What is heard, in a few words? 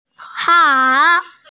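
"hao3", Mandarin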